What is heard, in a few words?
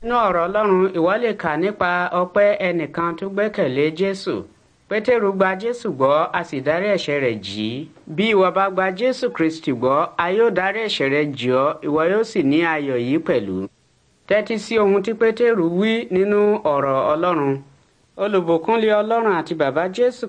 words of life yoruba; yoruba bible